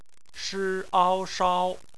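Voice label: "shao" bopomofo, Chinese; phonetics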